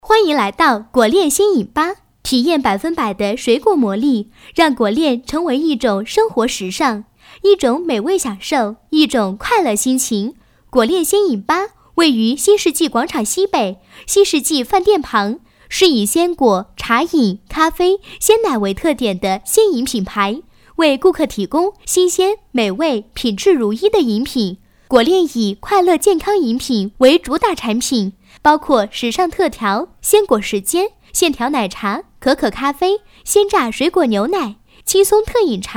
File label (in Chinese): 欢迎来到果恋鲜饮吧, 国语女声配音; 经济广告